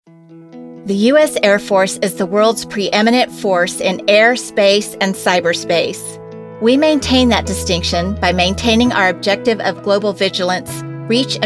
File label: corporate